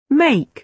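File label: "make", British English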